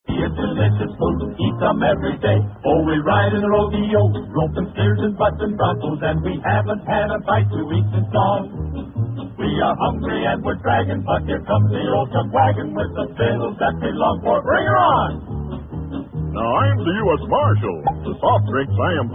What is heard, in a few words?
Vintage Commercials Movie Intermission